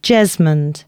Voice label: announcements, female